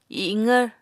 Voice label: "iqvr", Apatani